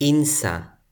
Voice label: "insa", Toki Pona

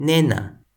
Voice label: "nena", Toki Pona

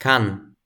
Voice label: "kan", Toki Pona

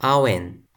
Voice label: "awen", Toki Pona

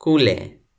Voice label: "kule", Toki Pona

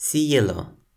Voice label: "sijelo", Toki Pona

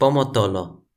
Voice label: "pomotolo", Toki Pona

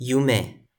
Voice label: "jume", Toki Pona

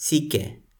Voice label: "sike", Toki Pona

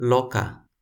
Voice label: "loka", Toki Pona

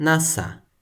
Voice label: "nasa", Toki Pona

